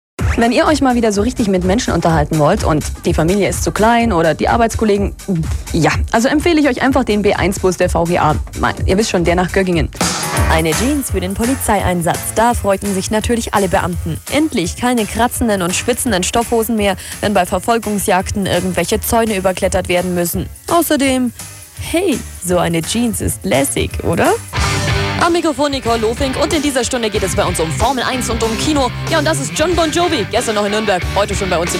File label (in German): Radio Moderation